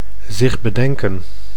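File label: "zich bedenken", Dutch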